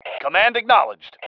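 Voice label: command acknowledge, male; cmdacknowledge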